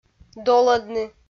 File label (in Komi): "долӧдны", Komi